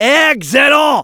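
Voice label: nice shot, French; Medic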